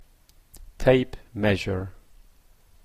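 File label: "tape measure", Canadian English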